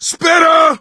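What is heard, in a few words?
warn spitter, voice, male; Left 4 Dead 2; coach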